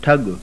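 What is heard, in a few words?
voiceless aspirated retroflex, sindhi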